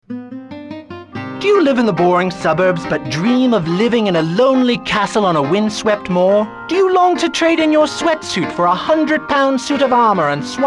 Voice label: commercial medievel; GTA3 Radio Stations - Commercials